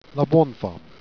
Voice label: "bouannefemme", Jèrriais

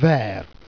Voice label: "vert", Jèrriais

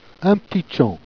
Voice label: "un p'tit tchian", Jèrriais

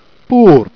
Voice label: "pourpre", jerriais

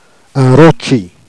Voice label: "rotchi", Jèrriais

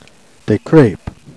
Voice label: "crepes", Jèrriais

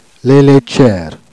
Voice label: "laitchiers", Jèrriais

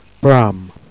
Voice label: "bram", Jèrriais

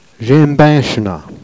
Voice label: "j'aime beinchenna", Jèrriais

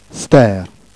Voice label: "ster", Jèrriais

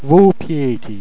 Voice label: "vos pliaît", Jèrriais

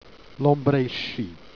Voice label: "embraichchie", Jèrriais

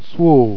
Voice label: "souos", Jèrriais

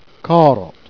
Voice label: "carotte", jerriais

